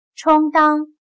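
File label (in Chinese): "充当" Mandarin Chinese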